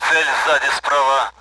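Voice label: Speech, Russian, AWACS; DCS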